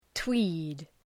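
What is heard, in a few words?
"tweed", English; {twi:d}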